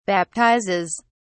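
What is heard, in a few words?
"baptizes", British English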